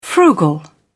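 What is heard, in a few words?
"frugal", British English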